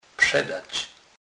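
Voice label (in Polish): "przedac", Kurpie dialect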